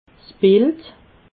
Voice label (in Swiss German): "bild", alsace